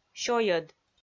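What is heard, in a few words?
"сёйӧд" Komi, female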